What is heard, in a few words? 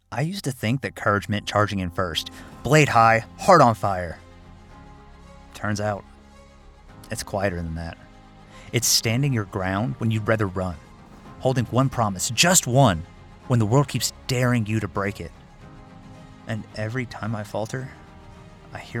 Character Hero Anime